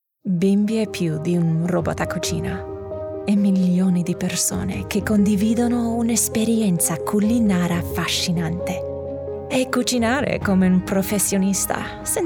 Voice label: Italian Commercial

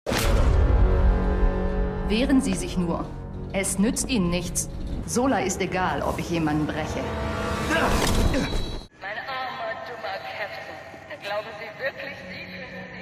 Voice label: videogames characters

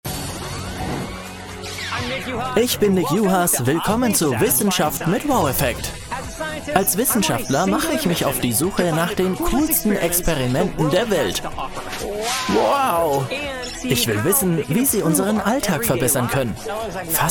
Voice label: Voiceover; WOW

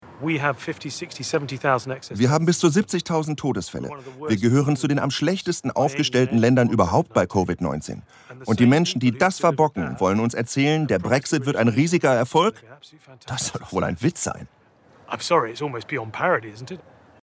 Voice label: over-voice; Dr. Phillip Lee

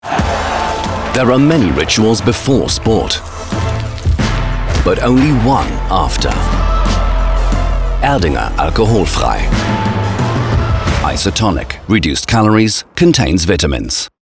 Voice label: UK; Erdinger

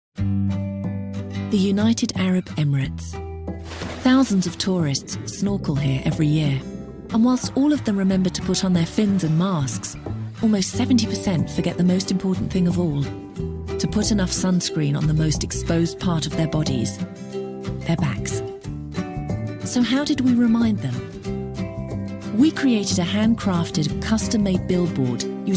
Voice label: tv commercial ad advert; the undersea billboard; nivea sun

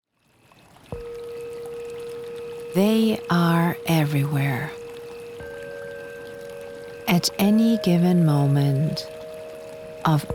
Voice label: Commercial EN; Rituals

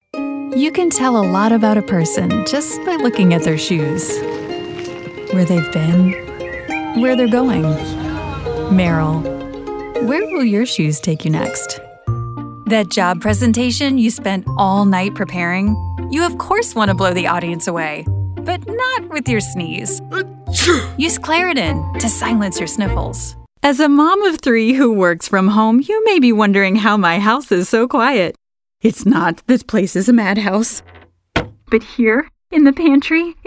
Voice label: Commercial, English US

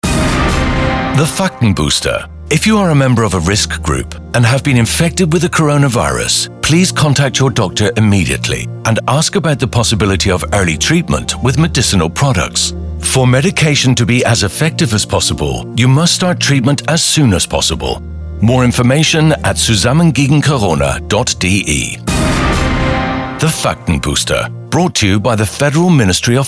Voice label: Radio EN Risk Groups; BMG; FaktenBooster

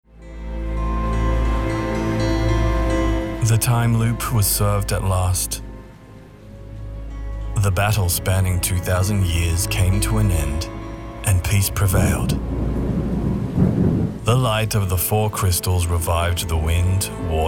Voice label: VO VIDEOGAME; HEART OF DARKNESS; LOFT VOICES

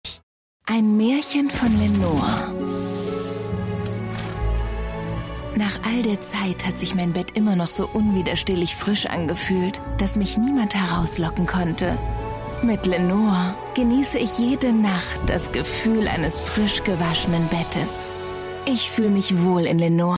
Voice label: TVC; Lenor

